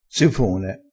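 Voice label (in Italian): "zifone", dialettale, crespinese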